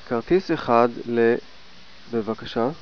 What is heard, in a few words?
"ticket", Hebrew